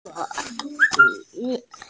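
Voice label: "ke3yi3", Mandarin Chinese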